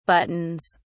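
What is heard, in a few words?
"buttons", English, British English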